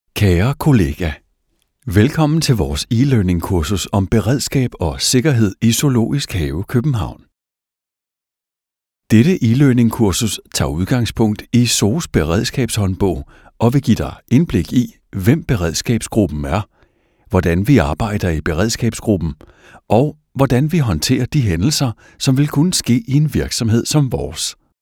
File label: e-learning